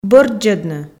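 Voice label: "бӧрддзӧдны", Komi, female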